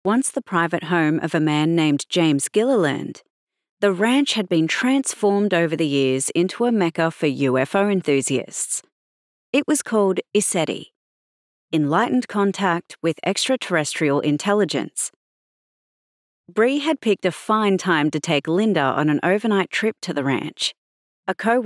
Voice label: Audiobook Fiction Mystery Sci Fi Aliens Australian US; australian voice over; audiobook narration